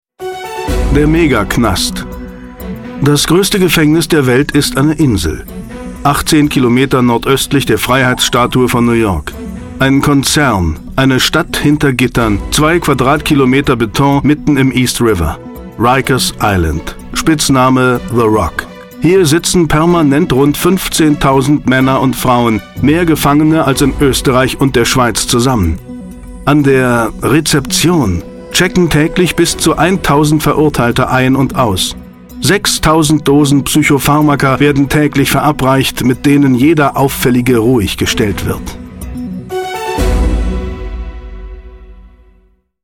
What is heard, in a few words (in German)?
Doku, sprecher-in; Stimme von George Clooney, Bill Pullman